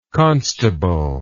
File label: "constableship", English